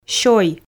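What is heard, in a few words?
"сёй" Komi, female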